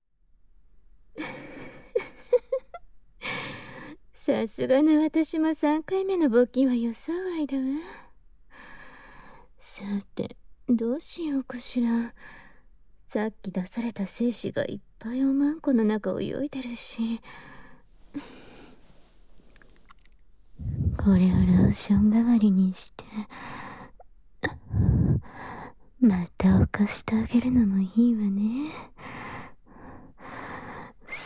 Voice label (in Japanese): 繋がったまま乳首舐め, ASMR; 反転